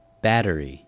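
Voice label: "battery", spoken word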